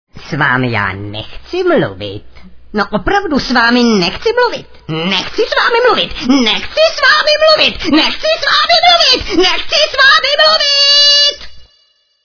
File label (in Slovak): sms hlásky cz